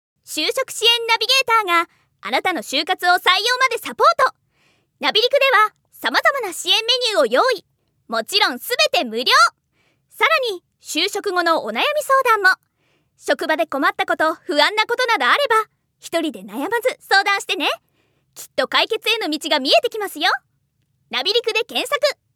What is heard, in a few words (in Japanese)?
就活CM